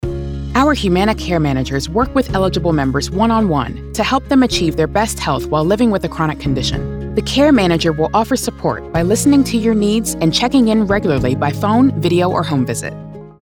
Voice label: Caring Warm Understanding Healthcare Corporate Narration; Humana